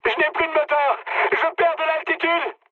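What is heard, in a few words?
damaged engine, mood high, French; War Thunder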